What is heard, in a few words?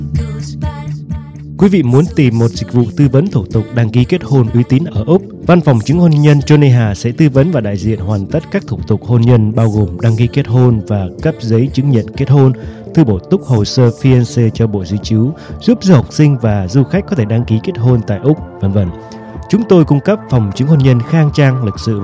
radio ads; afcc